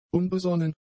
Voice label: "unbesonnen", German; adjectives